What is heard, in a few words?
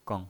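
"geng", Mandarin Chinese, neutral tone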